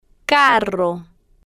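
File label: "carro", slow, spanish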